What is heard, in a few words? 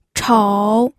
"chou3", pinyin